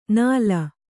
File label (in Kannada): "ನಾಲ", Kannada